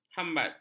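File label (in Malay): "hambat", Malay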